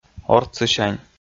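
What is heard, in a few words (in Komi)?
"ортсысянь", Komi, male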